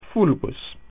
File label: "brown", Latin